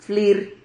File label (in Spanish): "flir", Silabas